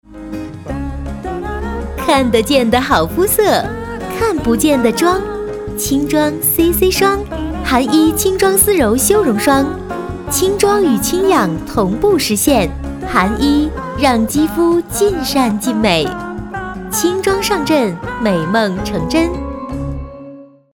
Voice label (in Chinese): 女 广告, 国语女声配音